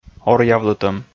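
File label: "оръявлытӧм", Komi, male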